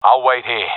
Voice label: waiting here, professional epic; vo; Counter Strike Model Pack; CS:GO

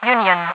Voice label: "union", radiovoice; Half Life 2

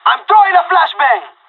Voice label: flashbang, pirate; vo; Counter Strike Model Pack; CS:GO

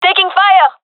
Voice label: takingfire, gendarmerie fem; vo; agents

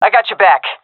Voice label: covering friend, seal fem; vo; Counter Strike Model Pack; CS:GO

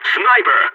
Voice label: sniper warning, balkan; vo

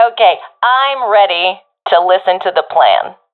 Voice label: request plan, professional fem; vo; Counter Strike Model Pack; CS:GO

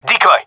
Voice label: throwing decoy, gendarmerie male; vo

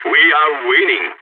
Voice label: on a roll brag, balkan

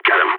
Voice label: enemy down, balkan; vo

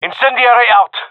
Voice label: throwing fire, gendarmerie male; vo

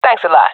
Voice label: thankful, swat agents; Source Model Packs; Counter Strike Model Pack; CS2; swat epic

